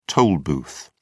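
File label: "toll booth", British English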